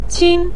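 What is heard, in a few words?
"qin", Mandarin Chinese neutral tone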